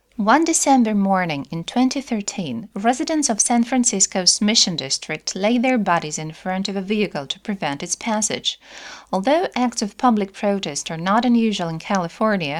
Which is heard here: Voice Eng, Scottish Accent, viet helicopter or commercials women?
Voice Eng